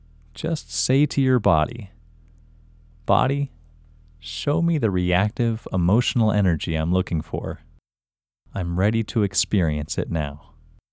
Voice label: Locate short OUT Male